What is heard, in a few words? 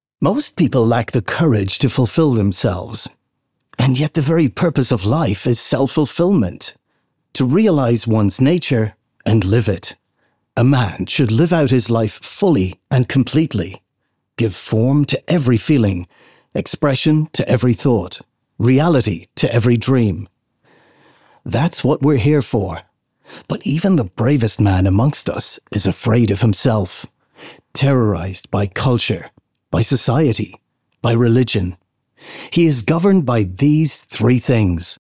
english irish narration, voice actor